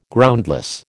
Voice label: "Groundless", American